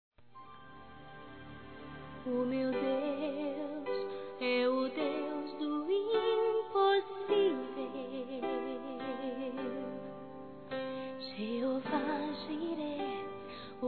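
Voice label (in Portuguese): ANIVERSARIO ROMANTICO, feminino; Telemensagens; Telemensagens 2017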